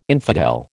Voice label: "infidel", American